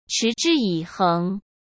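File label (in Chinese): "持之以恒", Mandarin Chinese; hsk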